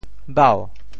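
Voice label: "bau2", Teochew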